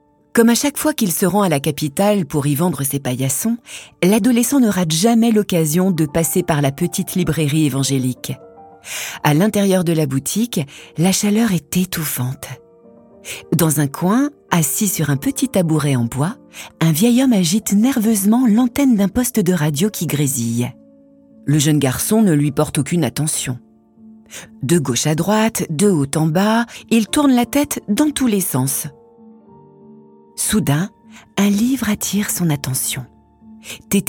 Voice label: comedienne voix off podcast; michel kpomassie

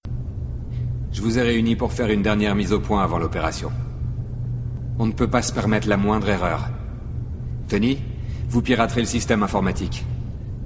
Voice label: doublage 6 personnages

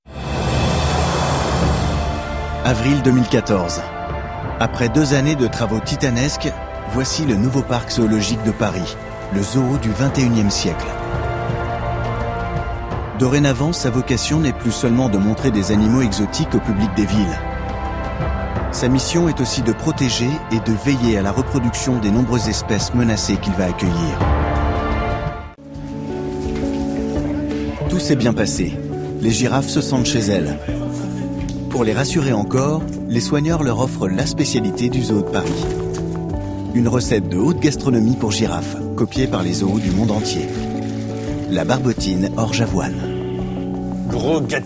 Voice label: Narration; Grande cause; BIENVENUE AU ZOO DE PARIS; Grandeur Nature; France 2

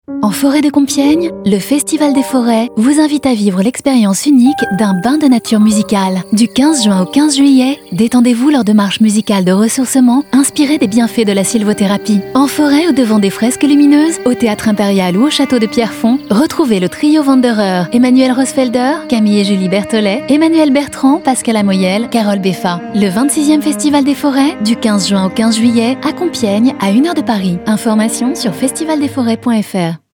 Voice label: Radio; Festival des forets

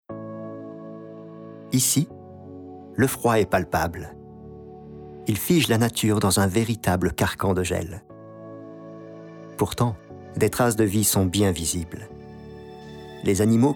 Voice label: Docu Autriche

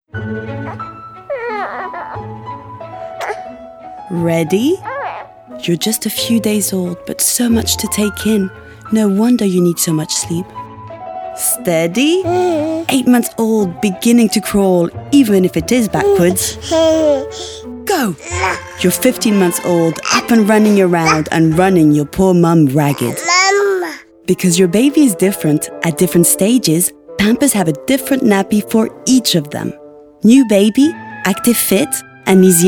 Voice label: Voix Anglaise; Pampers

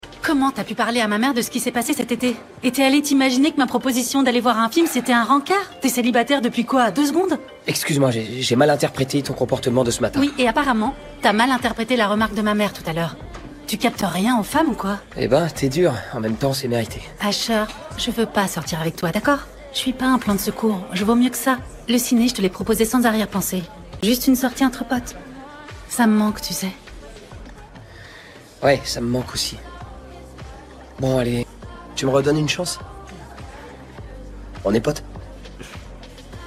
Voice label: extrait doublage; All American

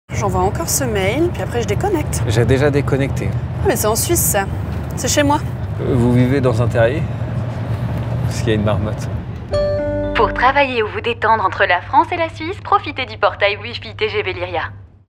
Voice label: FR; TGV Lyria

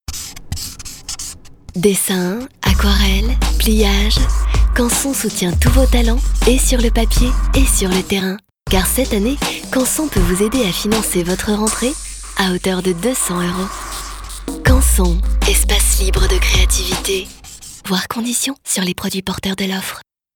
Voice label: aérienne évanescente; CANSON

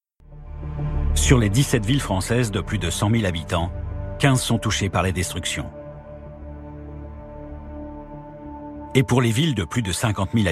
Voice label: Voix narrative Posé Grande; France 3; Après la guerre reconstruire la France; Grande Cause

